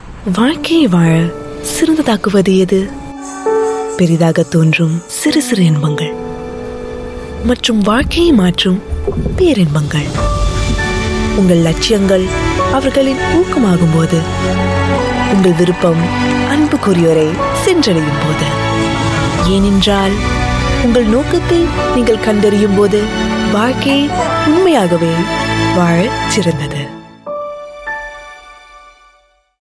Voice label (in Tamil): Tamil SG; CPF Retirement Planning 2022